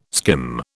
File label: "skim", British